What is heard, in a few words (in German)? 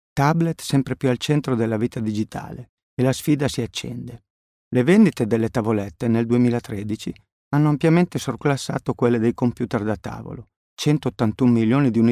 Nachvertonung Film Sprecher Italienisch